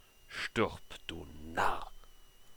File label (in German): Narr